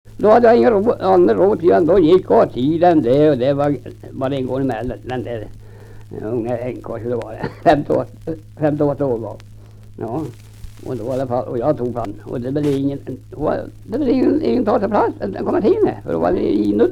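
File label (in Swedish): Viby Närke, dialekter; Folkminnen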